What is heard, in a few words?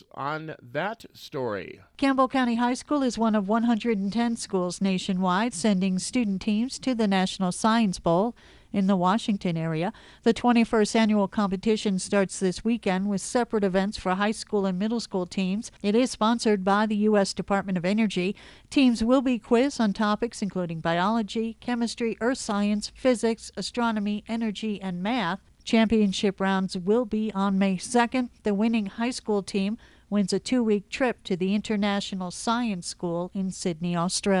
wyoming radio news